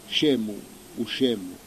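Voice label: "scemu", Corsican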